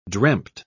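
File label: "dreamt", British English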